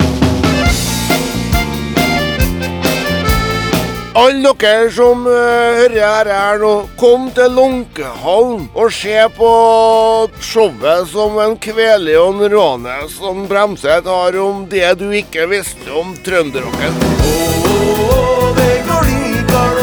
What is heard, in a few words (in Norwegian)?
Radioreklame; KRB Lånkehallen